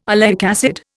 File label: "oleic acid", Indian